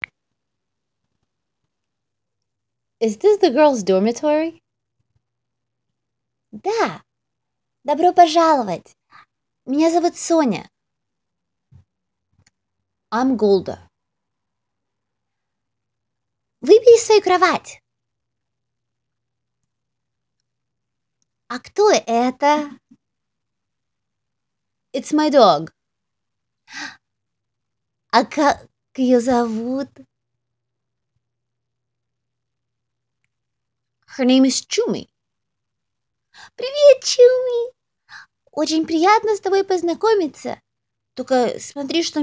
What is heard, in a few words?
Voice Over English and Russian